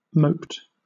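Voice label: "moped", British English; pronunciations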